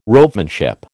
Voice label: "ropemanship", American